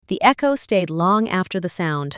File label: voice sample, A professional woman with a pleasing alto pitch.; Matilda; Shot Studio